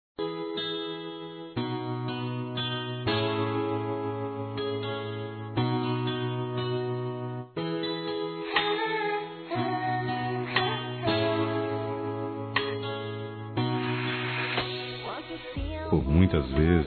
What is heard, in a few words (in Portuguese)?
Romantica Desculpa Romantica Masculino, Telemensagens